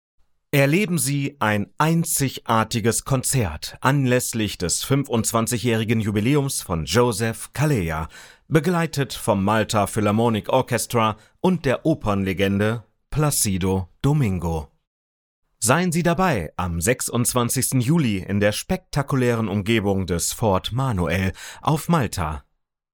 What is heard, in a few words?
Voice Over Show Intro